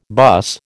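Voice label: "buss", American English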